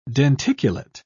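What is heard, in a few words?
"denticulate", British English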